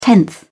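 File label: "tenth", British English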